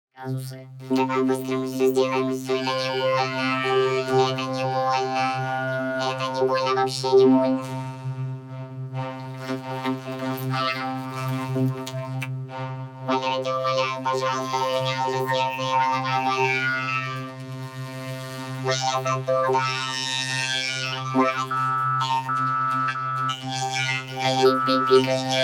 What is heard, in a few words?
Helium